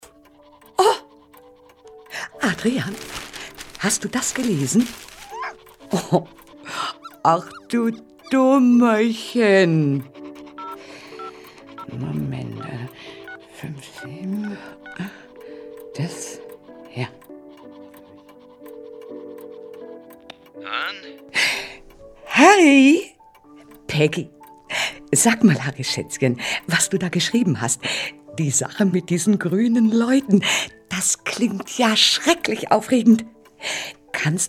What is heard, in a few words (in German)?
hoerspiel